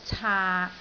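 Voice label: "caak6", Cantonese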